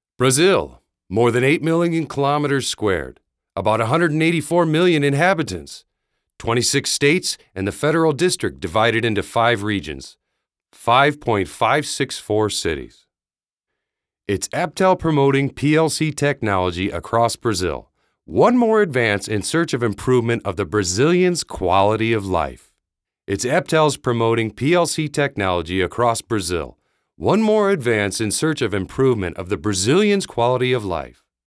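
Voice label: English American male voice narration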